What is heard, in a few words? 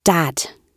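"dad", British English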